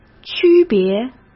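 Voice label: "区别", Mandarin Chinese; chinese-flashcards; hsk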